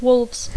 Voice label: "Wolves", English; Dacco